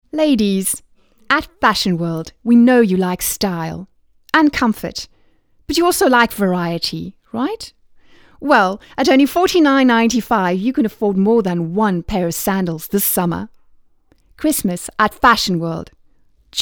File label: hard sell